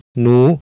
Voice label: "nuu", Sanskrit

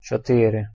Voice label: "chotyry", Ukrainian

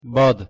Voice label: "bad", Proto Germanic

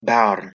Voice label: "bearn", Anglo Saxon

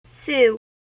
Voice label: "siu", Proto Germanic

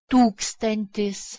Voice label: "tukstantis", Lithuanian

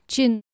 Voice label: "cinn" Anglo Saxon

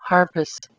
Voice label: "harbist", Proto-Germanic

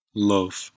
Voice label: "love", Northern English

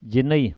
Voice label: "jinay", Pashto

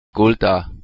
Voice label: "gulda", Proto-Germanic

